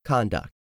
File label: "conduct", English; 3000 words